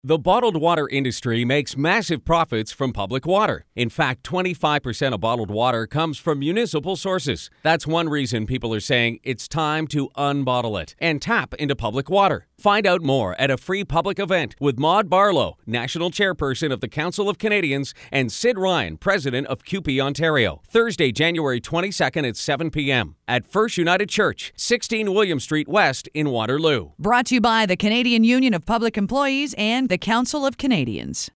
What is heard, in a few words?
MALE VERSION; CUPE; WATER; waterloo